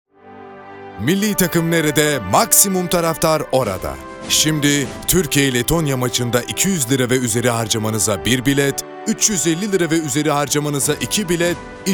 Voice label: otoriter, seslendirme

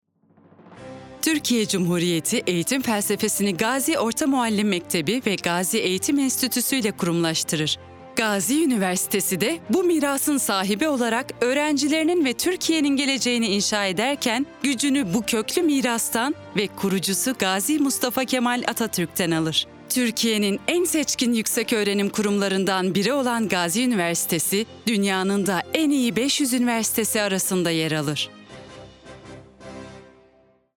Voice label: tanitim; kurumsal imaj

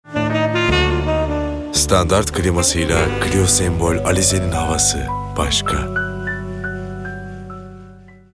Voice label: sicak samimi, seslendirme

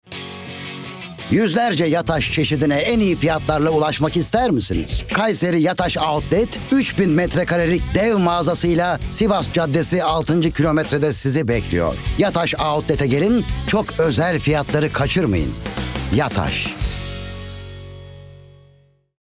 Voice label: kampanya, seslendirme

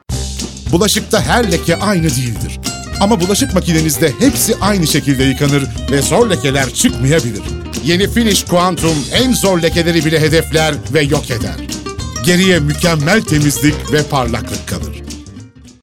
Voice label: enerjik tempolu, seslendirme

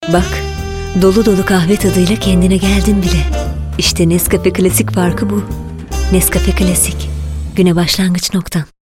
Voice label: sicak samimi

